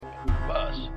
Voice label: Telefonstimme; Bosch

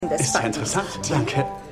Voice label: Mann, Stimmproben

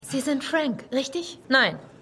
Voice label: Krankenschwester, Stimmproben; Wendy; Shameless

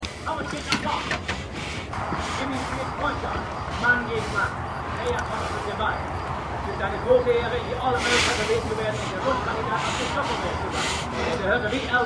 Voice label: Spielkommentator; Winning Time

